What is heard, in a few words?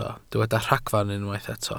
"ragfarn", Welsh